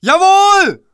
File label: yes sir, german